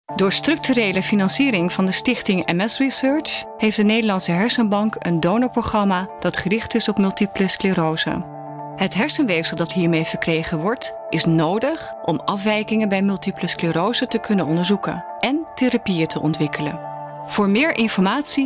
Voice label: radiospot